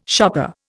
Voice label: "shopper", Indian